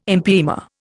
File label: "empyema", Indian